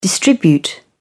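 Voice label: "distribute", British English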